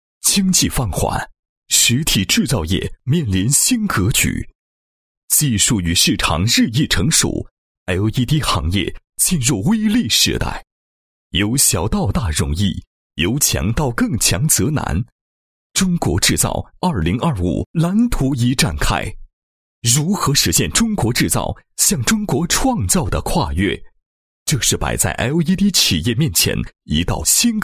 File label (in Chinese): 男 颁奖; 强力巨彩杯 LED显示屏行业品牌盛会颁奖